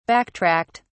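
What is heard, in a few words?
"backtracked", British English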